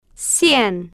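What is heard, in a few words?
"cien", Spanish, slow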